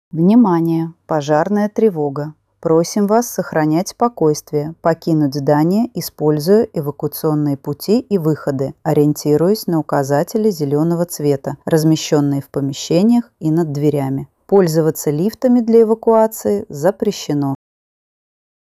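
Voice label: opoveshchenie pozharnaya trevoga ru en, fire alarm announcement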